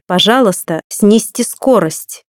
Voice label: Speed Limit Down, voice female; RU; MioMap C220; iGO831